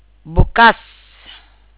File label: "open", Tagalog